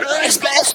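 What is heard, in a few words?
effects talk, Funky Vocals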